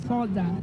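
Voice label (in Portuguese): "foda", Portuguese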